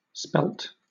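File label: "spelt", British English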